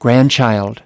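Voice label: "grandchild", English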